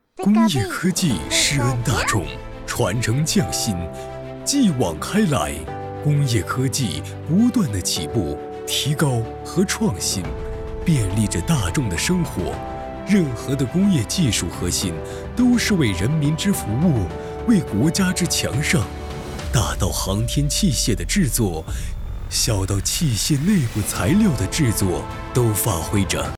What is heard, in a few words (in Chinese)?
宣传男工业科技